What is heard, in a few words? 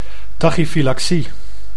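"tachyfylaxie", Dutch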